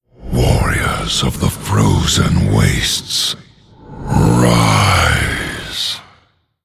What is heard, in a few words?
Male Human; ICC; card sounds